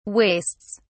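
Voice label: "wastes", British English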